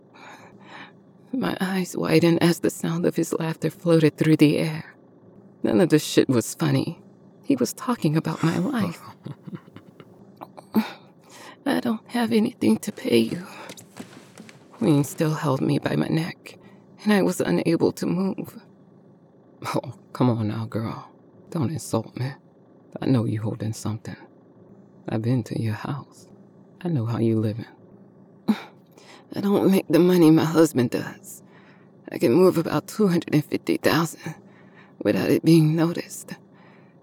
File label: 1st person narration - Fiction